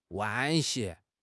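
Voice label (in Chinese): "惋惜", Chinese; HSK6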